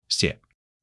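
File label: "xiě", Chinese; 基础汉语40课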